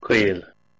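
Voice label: "koil", Proto-Indo-European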